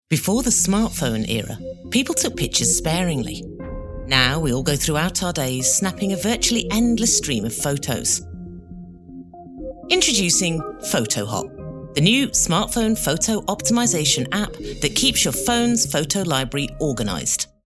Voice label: commercial whiteboard smartphone

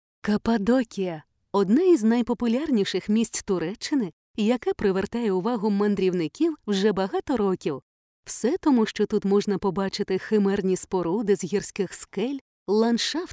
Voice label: Ukrainian; Golden Ring Turkey

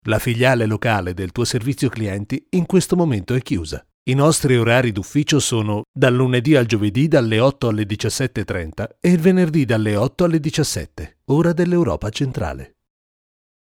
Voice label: Italian; Mouser

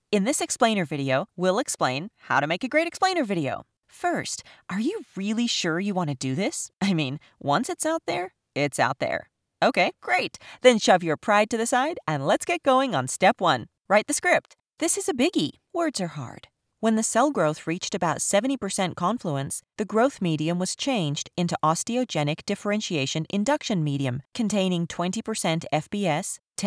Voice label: Dry

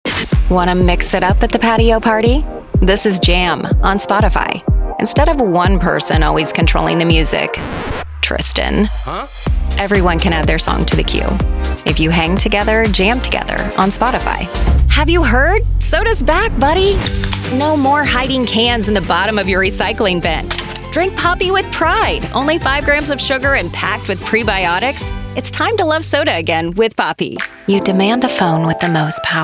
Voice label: commercial, Chicago voice

